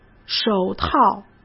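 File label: "手套", Mandarin Chinese; hsk; chinese flashcards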